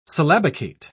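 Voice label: "syllabicate", British English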